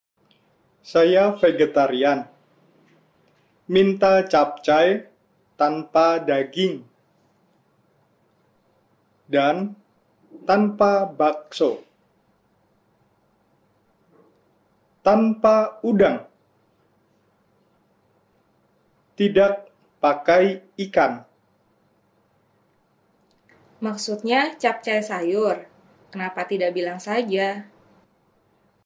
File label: Dialog